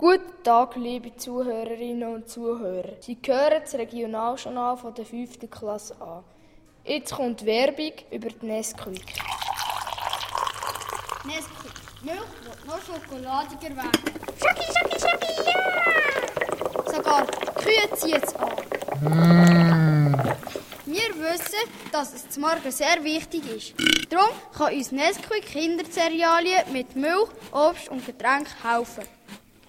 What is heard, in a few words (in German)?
Werbung